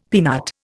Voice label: "peanut", Indian; Dictionary